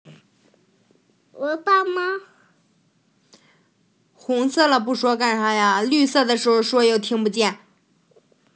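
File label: "xu3yuan4", Mandarin Chinese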